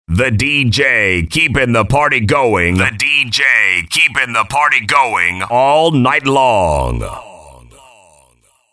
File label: Dj Drops 24 7 Party Going Dj Drop